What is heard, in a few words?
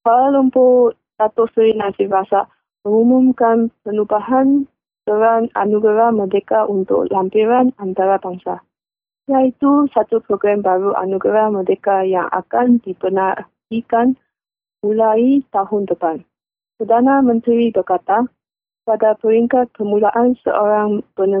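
Malay, female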